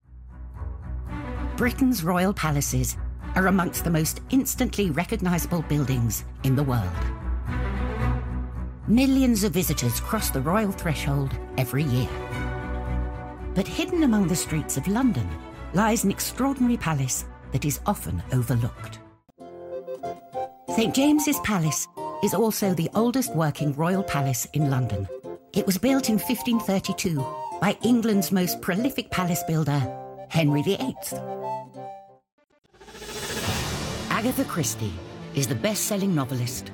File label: Narrative Reel